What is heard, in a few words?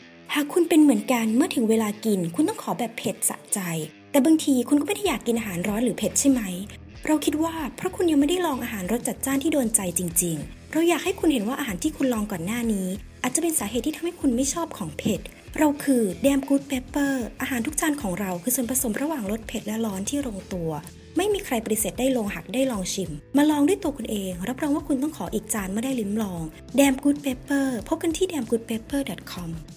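commercial, Thai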